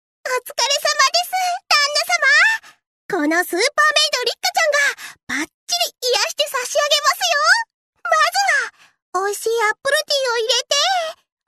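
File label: seikaku female iroppoi; 萌え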